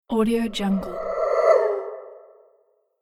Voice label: haunted cartoon voice

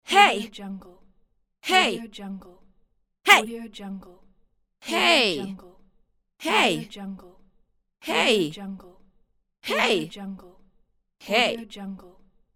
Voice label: woman voice "hey"

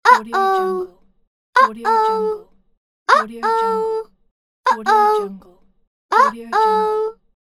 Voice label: girl saying "uh oh"

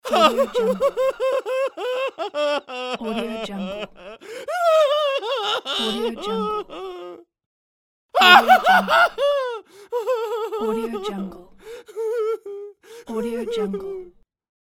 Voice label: man voice scared

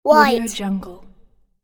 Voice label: boy says "white"

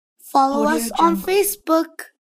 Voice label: follow us on facebook boy voice

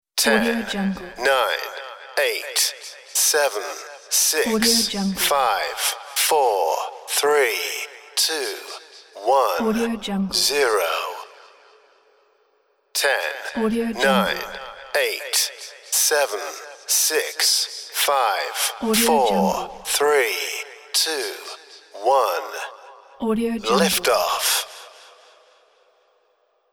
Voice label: countdown to zero male voice

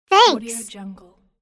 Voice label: cartoon girl "thanks"

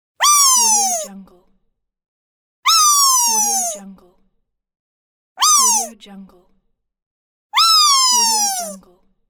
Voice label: tiny cartoon character yelling wee

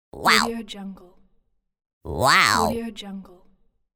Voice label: cartoon voice zany wow

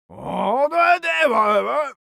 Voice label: human vocal male gibberish complaining

